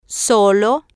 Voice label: "solo", spanish

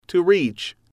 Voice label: to reach, English

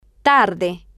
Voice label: "tarde", Spanish

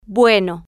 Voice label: "bueno", spanish

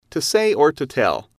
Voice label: to say tell, English

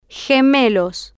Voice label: "gemelos", spanish

